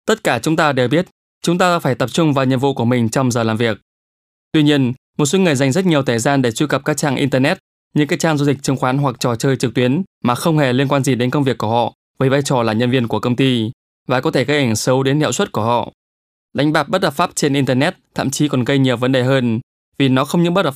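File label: Vietnamese, male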